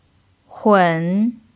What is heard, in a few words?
"hun3", chinese pinyin